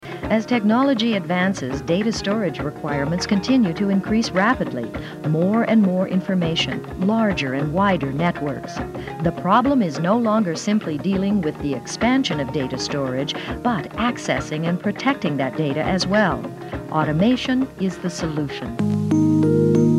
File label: narration